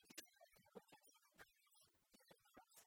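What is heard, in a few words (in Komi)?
кыдзи шуӧны факультетса деканӧс директорӧс, Komi, female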